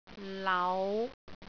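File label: "lau5", Cantonese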